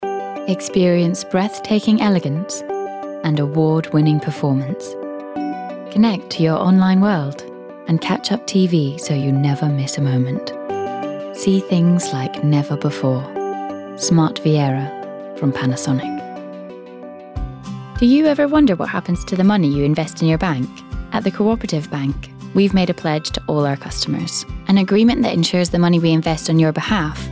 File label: Commercial